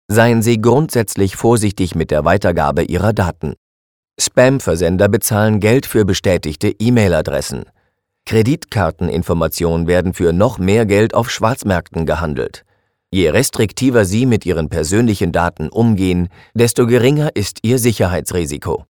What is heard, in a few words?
e-learning